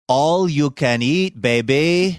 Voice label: saying all you can eat baby funny accent